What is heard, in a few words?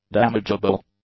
"damageable", English; adjectives